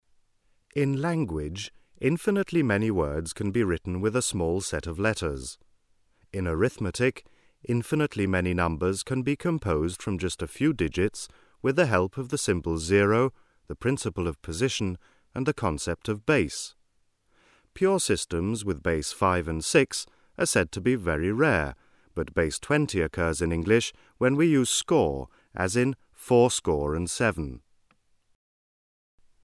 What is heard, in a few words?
Male speech —3 dB peak 1/3 octave wide, at 250 Hz.; equalize in 1/3 octave bands